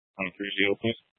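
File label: Tempe police department, scanner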